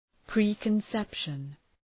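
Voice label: "preconception", English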